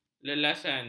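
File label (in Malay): "lelasan", Malay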